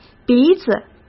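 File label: "鼻子", Mandarin Chinese; hsk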